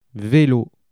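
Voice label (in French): "velo", French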